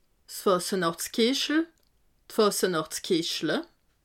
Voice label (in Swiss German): "fasenacht", alsace